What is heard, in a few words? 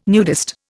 "nudist", Indian